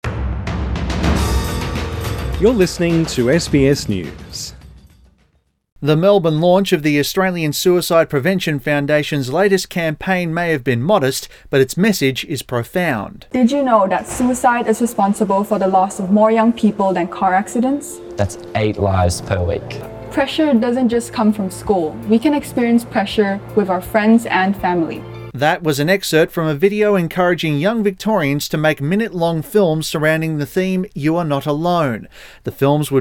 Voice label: english; SBS News In Depth; Youths' short films to be used in combating suicide, depression

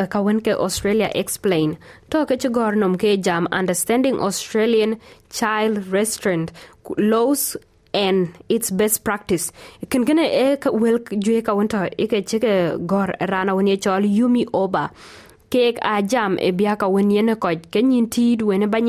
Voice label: dinka; Tɛ̈wɛn leu bi yin kuiërthïïk menhdu maai yic ku cuot yic rieïï; SBS Dinka

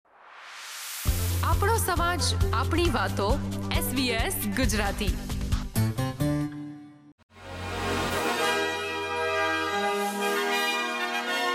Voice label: gujarati news bulletin; ૧પ જાન્યુઆરી ૨૦૨૬: ઓસ્ટ્રેલિયાની મુખ્ય અપડેટ; SBS Gujarati

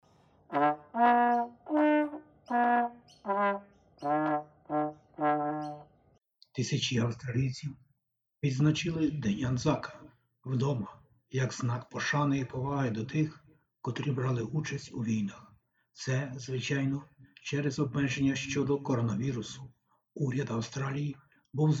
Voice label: Ukrainian; АВСТРАЛІЙСЬKI Й УКРАЇНСЬКІ АНЗАКИ; SBS Ukrainian; Тисячі австралійців відзначили День АНЗАКА вдома. Як знак пошани і поваги до тих, котрі брали участь у війнах

